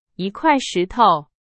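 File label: Chinese, example sentence; hsk3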